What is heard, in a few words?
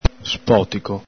"spotico", Veneto